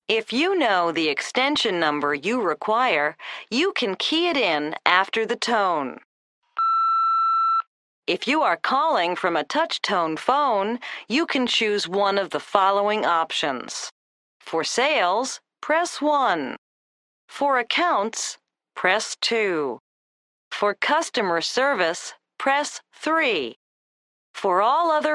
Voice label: Telephone, english listening survival